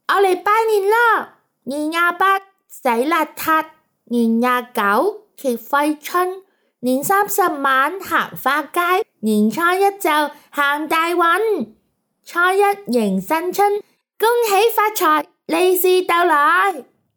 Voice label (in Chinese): 童声 小女孩 拜年